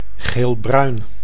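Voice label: "geelbruin", Dutch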